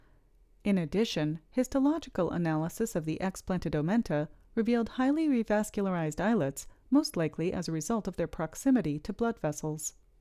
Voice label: Voiceover